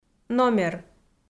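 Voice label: "номер", Komi-Zyrian, female; audio dict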